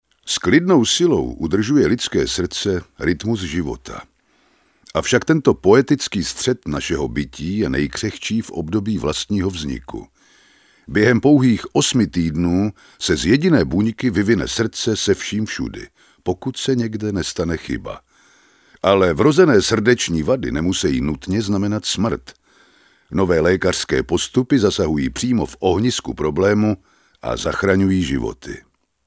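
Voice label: male Czech documentary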